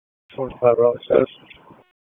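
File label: Police Southeast District Dispatch; Raleigh; wake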